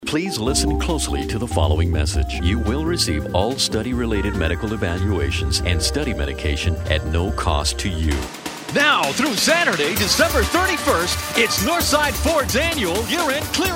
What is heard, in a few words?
English, males